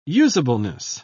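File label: "usableness", British English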